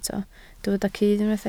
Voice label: "cudd", Welsh